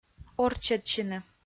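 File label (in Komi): "орччӧдсьыны", Komi, female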